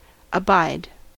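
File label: "abide", US English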